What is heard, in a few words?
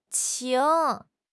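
"qing2", Mandarin Chinese; HSK2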